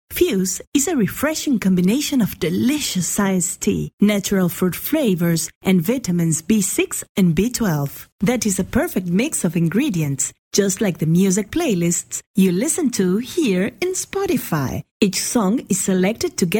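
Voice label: Spanish English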